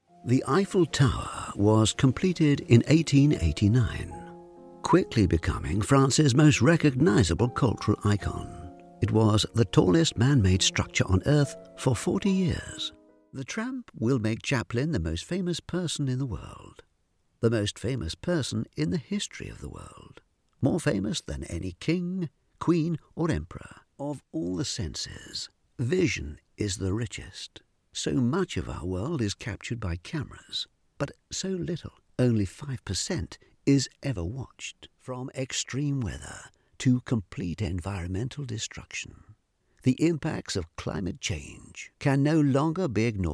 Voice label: Narration Showreel

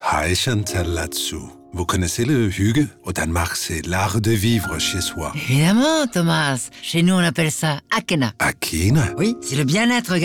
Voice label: Pub Radio FR, Radio, TV Commercial Voice Overs

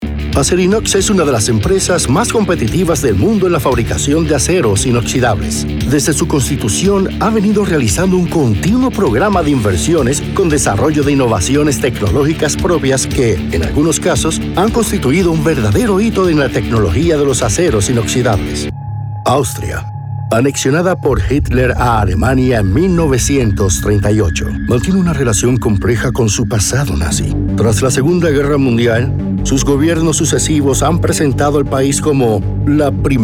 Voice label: Spanish LATAM Narration

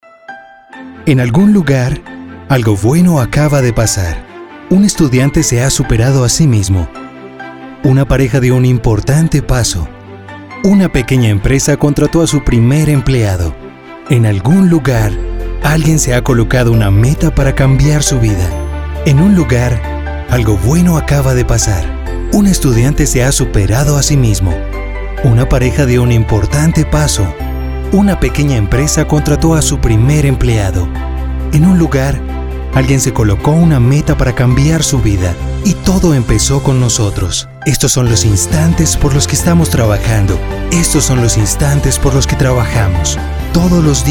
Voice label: inspiring Limitless

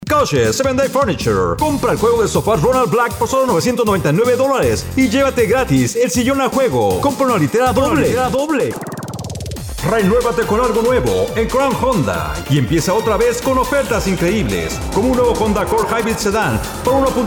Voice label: Spanish

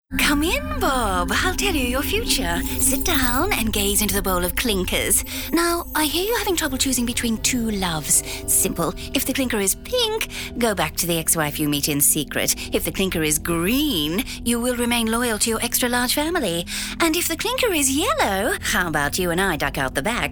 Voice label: CHARACTER VOICES

